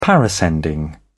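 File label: "parascending", British English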